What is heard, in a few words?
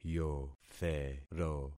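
"yothero", concatenated syllables; Affixing experiment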